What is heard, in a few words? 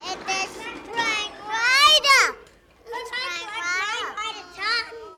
children at school talking about various; Walden school